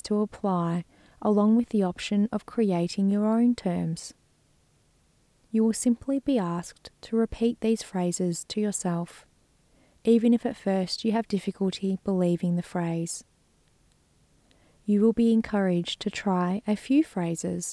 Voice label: instructions compassion meditation